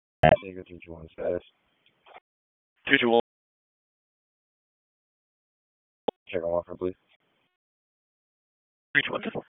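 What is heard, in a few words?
Police Dispatch; Raleigh; Northeast District; wake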